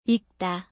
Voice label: korean read ikda

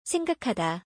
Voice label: "saenggakhada", Korean, think

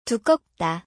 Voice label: "dukkeopda", Korean word

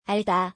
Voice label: "alda", Korean, know

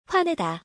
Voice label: "hwanaeda", Korean

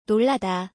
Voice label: "nolrada", Korean

